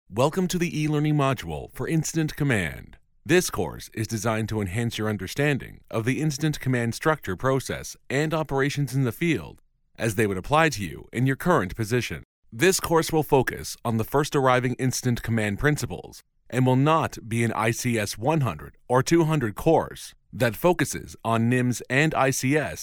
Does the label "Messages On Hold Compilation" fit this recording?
No